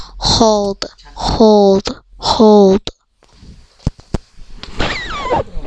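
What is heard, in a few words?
"hold", spoken word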